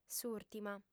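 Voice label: "s'ùrtima", Sardinian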